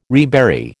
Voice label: "rebury", American